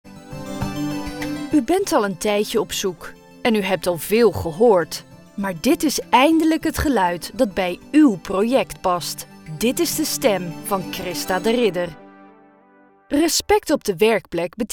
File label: DUTCH